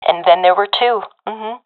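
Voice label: enemies left two, professional female; vo; Counter Strike Model Pack; CS:GO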